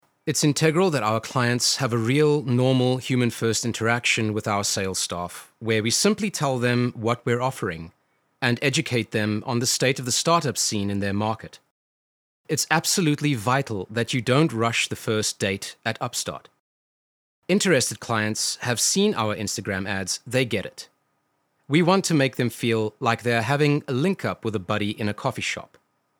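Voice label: Afrikaans English Male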